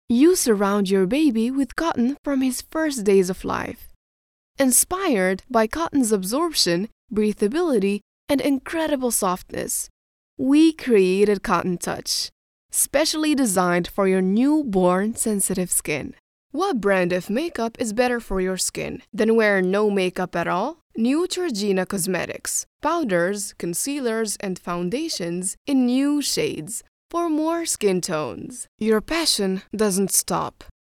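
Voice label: Arabic English female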